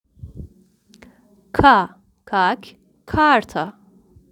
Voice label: russian